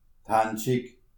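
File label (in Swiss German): "handschi", Alsatian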